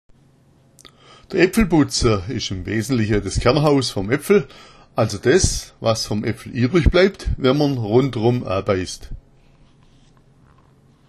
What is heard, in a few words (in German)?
epfelbutza, schwaebisch